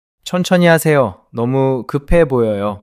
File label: neutral